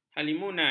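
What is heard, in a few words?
"halimunan", Malay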